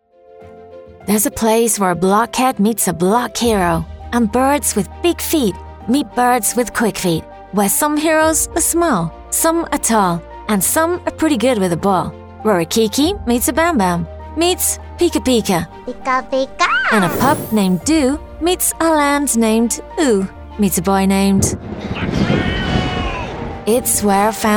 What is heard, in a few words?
Promo; HBO